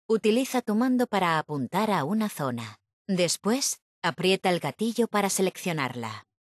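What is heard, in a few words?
select zone help, Spanish (Spain); retaildemo; Windows; Mixed Reality Learning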